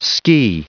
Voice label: "ski", en-us